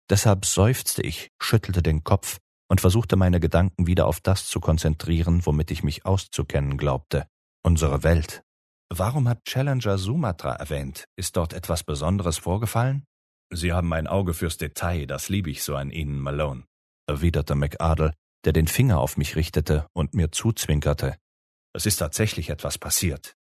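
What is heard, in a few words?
German, male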